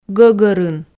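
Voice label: "гӧгӧрын", Komi, female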